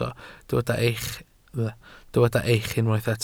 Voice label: "eich", Welsh

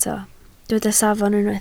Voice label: "safon", Welsh

